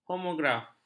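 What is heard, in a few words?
"homograf", Malay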